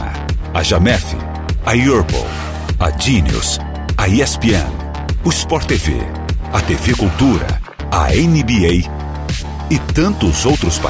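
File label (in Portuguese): Português - Brasileiro, Voz Padrão - Grave, Masculino; NBB CAIXA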